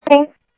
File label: Sheriff, scanner audio